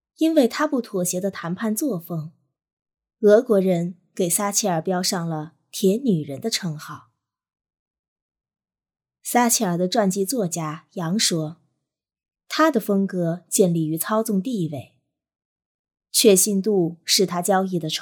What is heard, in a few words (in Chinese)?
有声读物